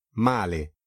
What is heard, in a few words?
"male", it